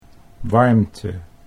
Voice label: "warmte", Dutch